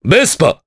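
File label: Vox Japanese; Nicx; Vespa; kingsraid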